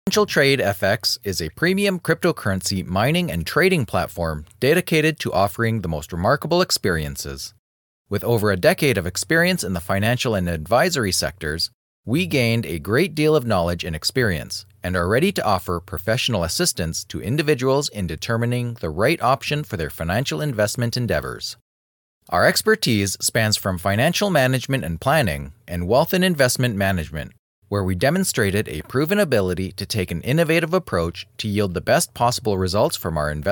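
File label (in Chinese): 外籍男英_外语_美式英语_区块链广告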